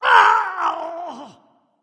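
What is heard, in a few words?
ouch, dragon; fafnir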